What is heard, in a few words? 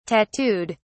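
"tattooed", British English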